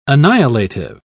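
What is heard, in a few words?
"annihilative", British English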